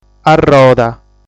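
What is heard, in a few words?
"Arroda", Sardinian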